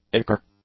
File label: "ichor", English; nouns